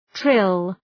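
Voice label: "trill", English; {trıl}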